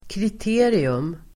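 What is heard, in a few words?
"kriterium", Swedish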